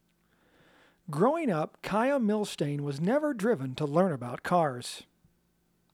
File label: Mechanic Shop Femme VO Scratch